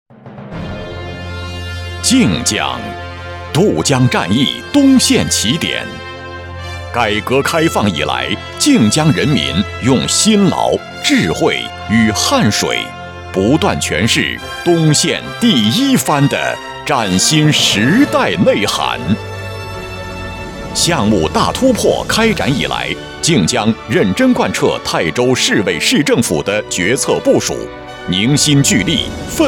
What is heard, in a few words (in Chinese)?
男 专题片配音; 泰州市委工作会议专题片配音